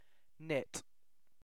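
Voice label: "knit", British English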